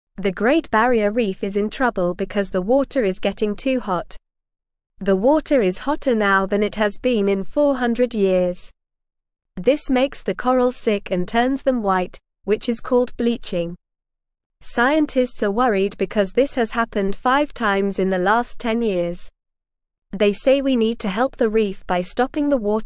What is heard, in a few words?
English Beginner NORMAL Reading; Help the Great Barrier Reef Stay Healthy and Safe